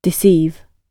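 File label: "deceive", British English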